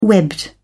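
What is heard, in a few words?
"webbed", British English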